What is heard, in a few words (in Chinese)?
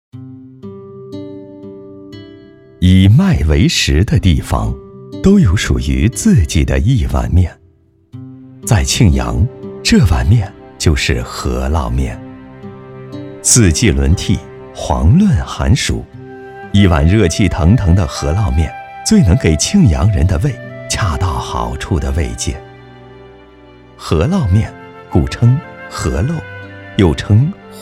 男 专题 美食记录 饸饹面